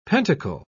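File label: "pentacle", English, British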